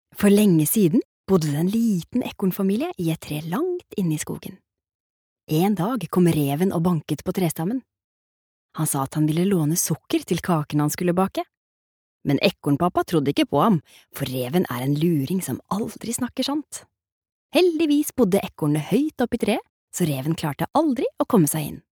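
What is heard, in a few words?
Norwegian childrens book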